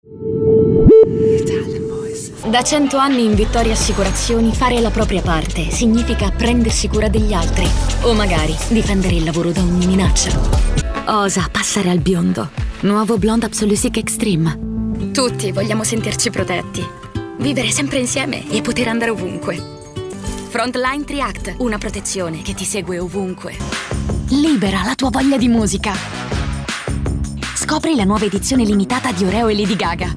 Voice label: ITALIAN VOICES Corporate Reassuring beauty, female voices